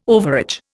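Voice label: "overage", Indian English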